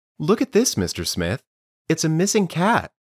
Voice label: Dictation